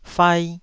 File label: "fai2", Taiwanese